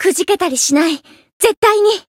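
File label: variable chant, Japanese; saki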